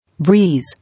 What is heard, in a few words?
"Breeze", English